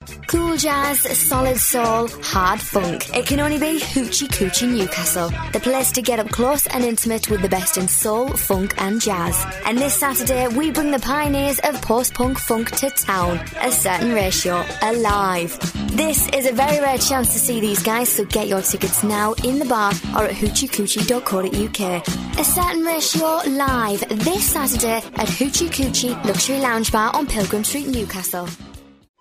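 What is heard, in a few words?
British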